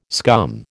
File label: "scum", American